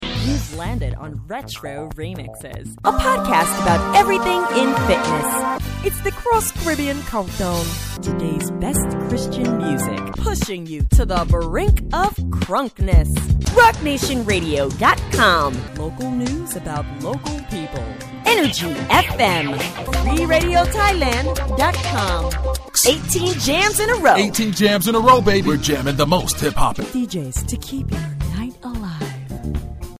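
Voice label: American English Radio Imaging, voice over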